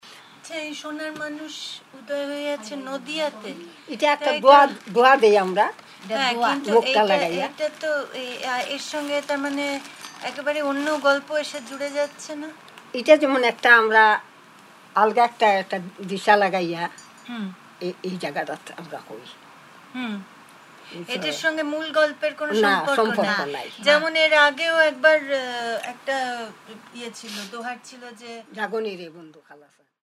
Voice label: Conversation